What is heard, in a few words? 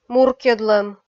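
"муркйӧдлӧм", Komi, female; kpv audio dict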